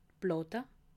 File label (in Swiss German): "platte", alsace